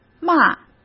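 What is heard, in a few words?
"骂", Mandarin Chinese; chinese-flashcards; hsk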